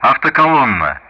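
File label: Speech Sound Russian; DCS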